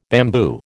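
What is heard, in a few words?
"bamboo", American English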